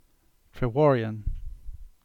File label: Cornish; Trevorian